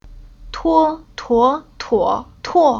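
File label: "Tuo", chinese syllable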